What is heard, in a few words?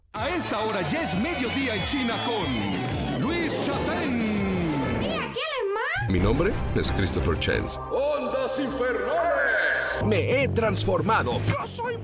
Spanish Latin